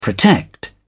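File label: "protect", British English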